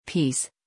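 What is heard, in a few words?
"peace", English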